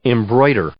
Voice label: "embroider", English

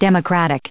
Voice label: "democratic", English; adjective

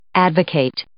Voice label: "advocate", English

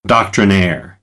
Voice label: "doctrinaire", American; English; ɹ - əɹ

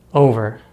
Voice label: "over", American English; Diphthongs in American English; easypronunciation

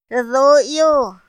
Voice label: "ra doy´o", Otomí; Días de la semana; INPI; INALI

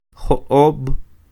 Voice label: "Jo'ob'", Quiché; cinco; five

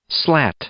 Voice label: "slat", English; noun

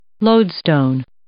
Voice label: "lodestone", English

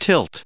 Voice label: "tilt", English

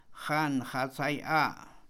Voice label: "manantial", Mam; INALI

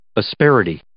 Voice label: "asperity", English

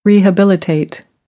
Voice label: "rehabilitate", American English; ə - ɪ

